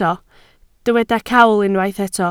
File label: "cawl", Welsh